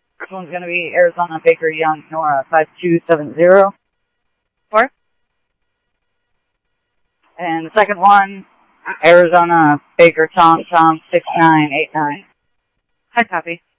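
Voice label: Simulcast police department, scanner audio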